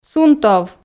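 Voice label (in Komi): "сунтов", Komi, female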